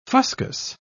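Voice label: "fuscous", British English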